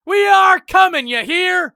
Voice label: battle cry; eft; usec